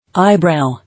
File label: "Eyebrow", English